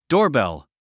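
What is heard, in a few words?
"doorbell", English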